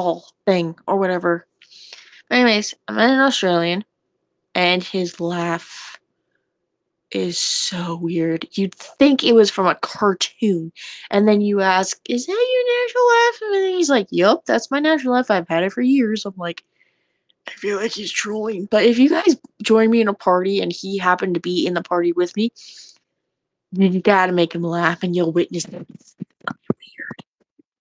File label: voice message; Discord; Outcast Gaming